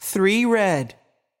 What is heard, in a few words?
voice Over, roulette; american roulette 3